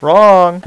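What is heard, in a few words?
"Wrong", male; VOICE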